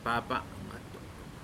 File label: "Grandfather", Pingelapese